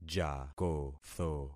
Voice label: "jakotho", concat syllables; Affixing experiment